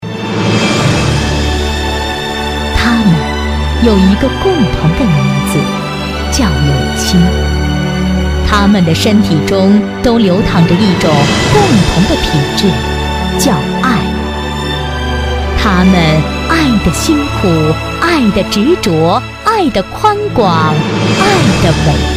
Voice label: 女 专题 颁奖; 感动中国风格

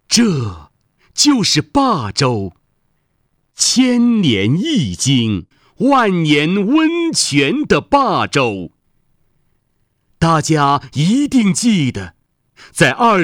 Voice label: 男国 朗诵; 刘辉艺术学校

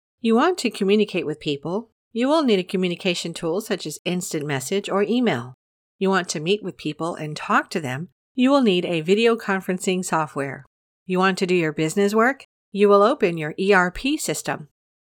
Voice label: 外籍女英 外语 美式英语 样音 成熟

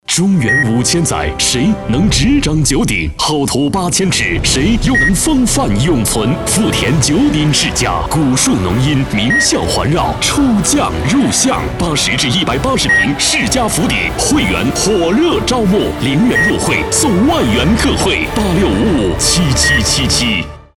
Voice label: 男 广告 磁性; 地产; 富田九鼎

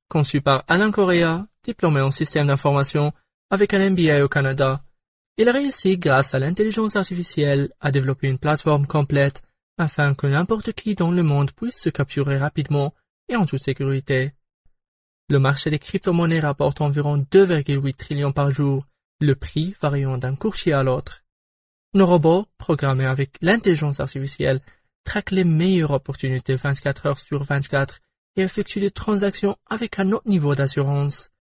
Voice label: 法语男, 外语, 小语种

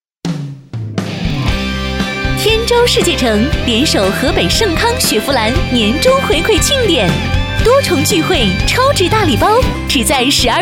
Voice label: 广告 促销 购房促销 亲切

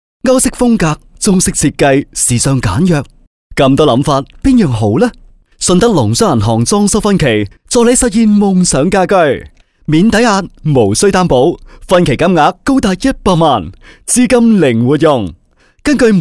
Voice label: 男粤 广告 银行; 粤语; 顺德农商银行

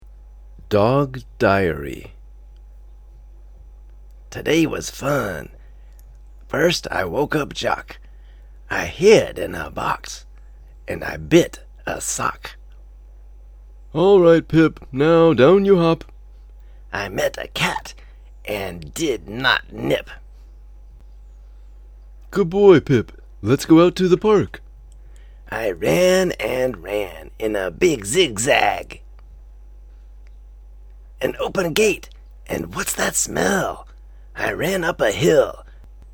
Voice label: 外籍男英 外语 美式英语 角色模仿小故事

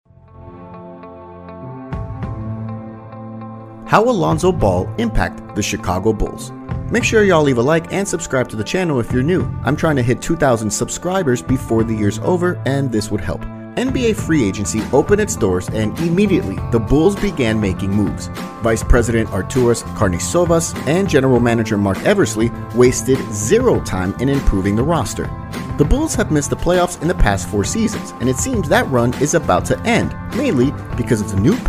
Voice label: 外籍男英 外语 美式英语 科技

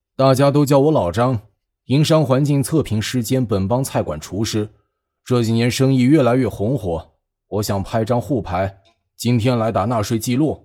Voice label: 动画 角色 厨师角色, 男

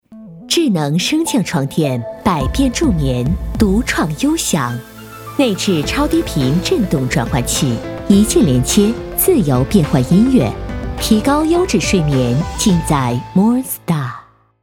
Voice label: 女 广告 家居家电 床垫广告

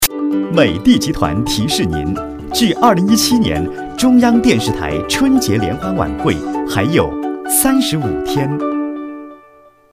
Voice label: 男 多媒体 广播提示 倒计时提示; 美的集团春晚倒计时提示

